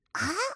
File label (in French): ETONNEMENT; mirumiru